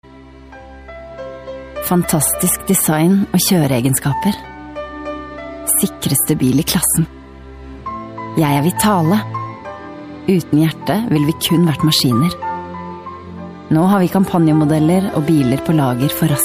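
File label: norwegian female commercial, voice demos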